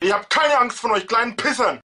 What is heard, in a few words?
angry; spackenmobs